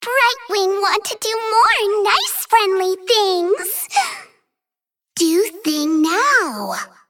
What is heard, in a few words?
Female Dragon Bark; Brightwing